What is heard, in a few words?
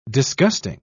"disgusting", pronounce